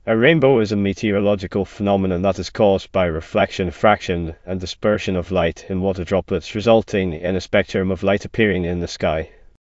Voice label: speaker, en GB; piper voices; vctk